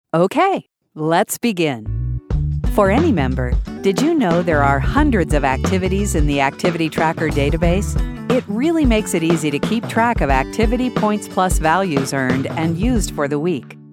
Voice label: Narr